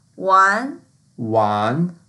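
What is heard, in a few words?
"wan2", Mandarin Chinese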